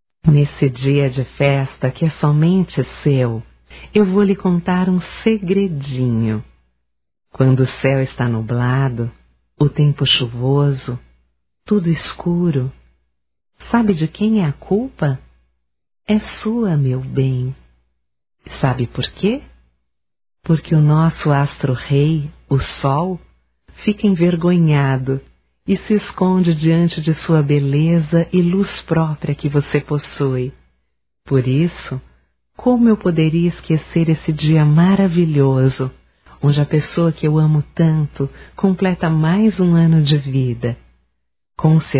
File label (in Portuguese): Aniversário Romântico Feminino; Aniversarios; Telemensagens; Telemensagens 2017